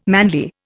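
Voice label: "manly", Indian English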